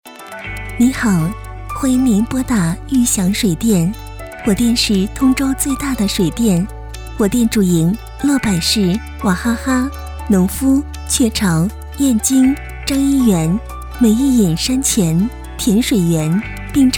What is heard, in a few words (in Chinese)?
女 彩铃